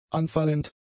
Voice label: "anfallend", German; adjectives

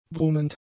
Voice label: "brummend", German; adjectives

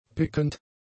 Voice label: "pikend", German; adjectives

